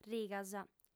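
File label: "rigas", female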